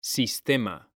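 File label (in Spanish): "sistema", male; mekanta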